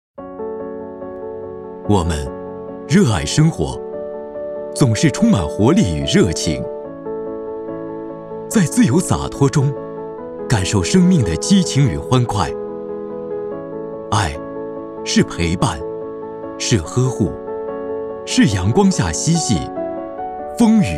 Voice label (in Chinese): 时尚走心